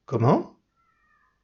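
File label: "comment", French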